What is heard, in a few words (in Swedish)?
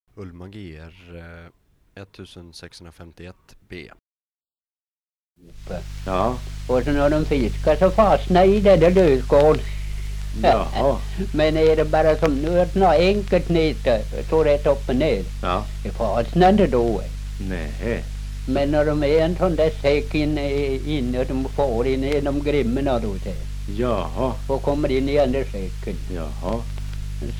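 Lohärad Uppland, dialekter; Svenska sagor; Folkminnen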